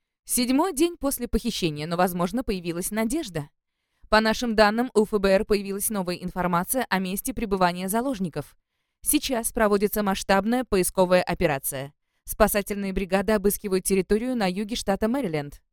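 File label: Russian Lithuanian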